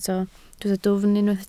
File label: "dwfn", Welsh